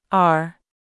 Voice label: "are", pronunciation vowel